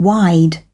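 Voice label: "wide", British English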